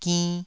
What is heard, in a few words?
"ginn2", taiwanese